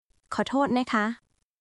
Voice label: Thai language; タイ旅行や学習に役立つ！カタカナ発音で始めるタイ語基本100フレーズ集